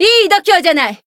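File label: Voice Japanese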